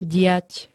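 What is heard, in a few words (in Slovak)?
"diať", Slovak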